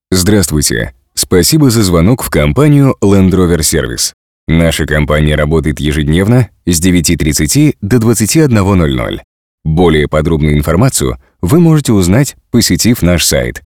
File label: IVR